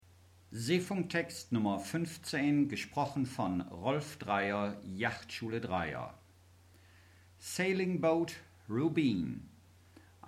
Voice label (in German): Seefunktext